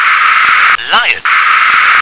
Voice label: "lion", English